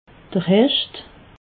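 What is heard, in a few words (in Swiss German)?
"hecht", Alsatian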